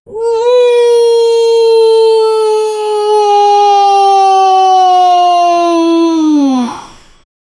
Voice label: female voice saying wooho; human vocal